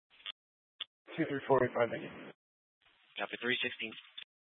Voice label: Mesa PD Dispatch